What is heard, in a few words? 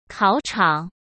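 "考场", Mandarin Chinese; chinese-flashcards; hsk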